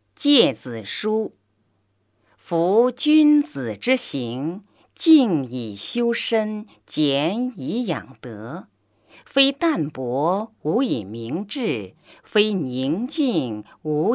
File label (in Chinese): 女 诗歌类; 诫子书; 国语女声配音